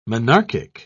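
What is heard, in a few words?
"monarchic", British English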